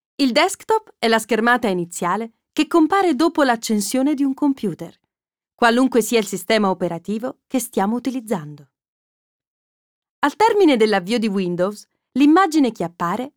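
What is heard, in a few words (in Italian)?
E-Learning, voice-over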